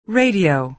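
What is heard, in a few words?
"radio", American English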